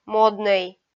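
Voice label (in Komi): "моднӧй", Komi, female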